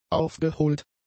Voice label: "aufgeholt", German; adjectives